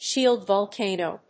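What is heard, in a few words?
"Shield volcano", English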